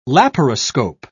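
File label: "laparoscope", British English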